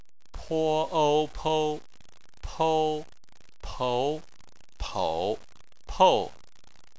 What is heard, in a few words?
"pou", chinese